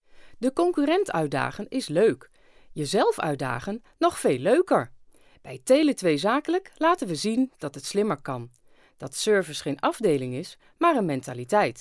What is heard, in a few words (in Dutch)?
stemdemo zakelijk; Tele2